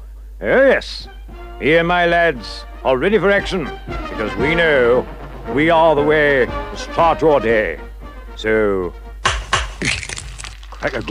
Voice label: Radio Advert, Eggs; Radio Hallam; Sgt Major